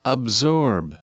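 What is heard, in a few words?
"absorb", USA, male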